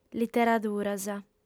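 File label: "literaduras", female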